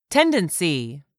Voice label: "TENDENCY", english; 3000 words